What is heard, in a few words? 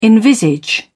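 "envisage", English, British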